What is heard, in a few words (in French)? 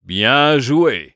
good job, fr